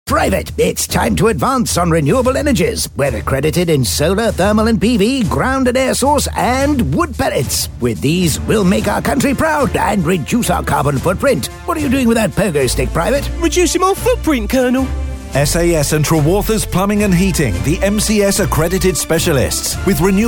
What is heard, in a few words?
radio advert